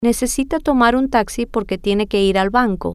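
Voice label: Necesita Tomar Taxi Porque Tiene Que Ir Banco, Spanish, female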